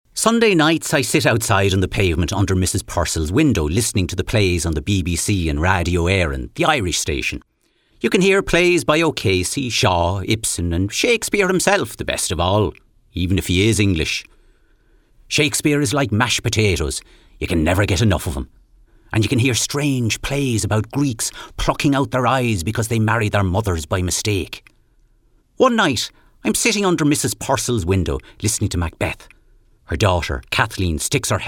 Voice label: Narration; Vocal Point